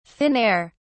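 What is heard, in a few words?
"thin air", British English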